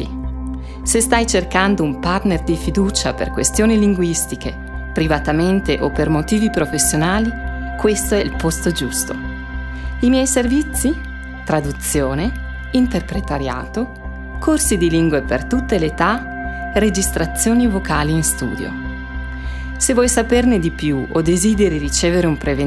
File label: Italiano, Sprachproben